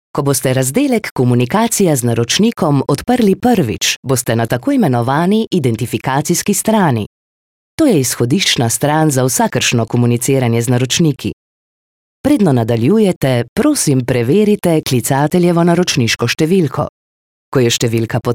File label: slovenian e-learning